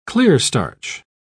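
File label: "clearstarch", English, uk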